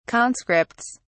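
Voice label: "conscripts", British English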